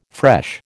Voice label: "fresh", American